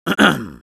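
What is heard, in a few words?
battle cry, Spanish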